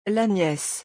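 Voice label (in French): "La niece", French; vocabulaire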